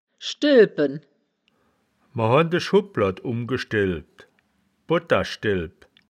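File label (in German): "schdel bpen", wittlicher woerterbuch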